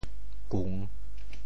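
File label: "kung3", Teochew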